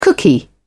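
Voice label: "cookie", British English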